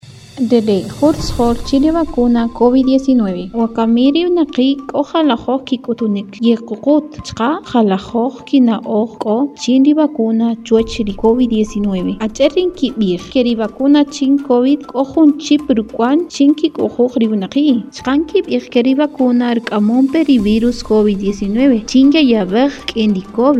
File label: SPOT KAQCHIKEL